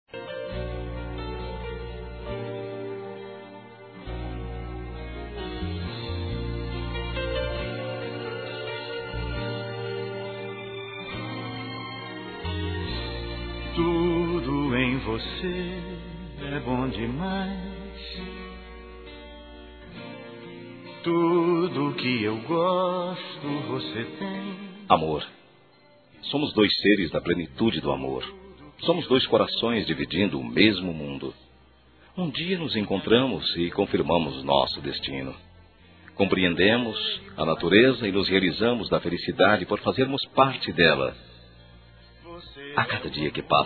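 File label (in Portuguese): ROMANTICO P ESPOSA, Telemensagens, Românticas masculino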